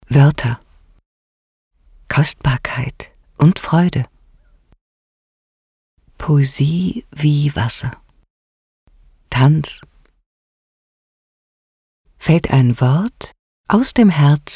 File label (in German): Wörter Lyrik